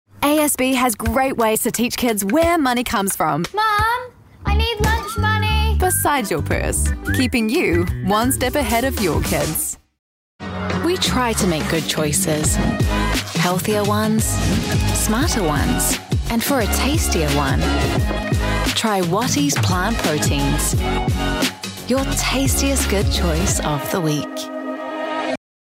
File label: new-zealand voiceover montage